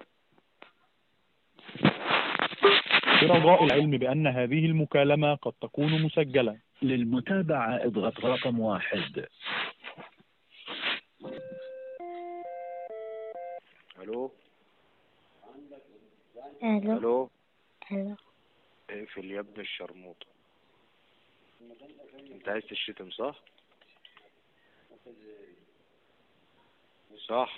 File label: Call recording Emergency number